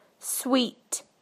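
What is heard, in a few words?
"sweet", British English; PictureDictionary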